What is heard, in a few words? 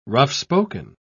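"rough-spoken", British English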